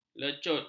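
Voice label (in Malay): "lecut", Malay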